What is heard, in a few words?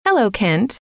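hello, female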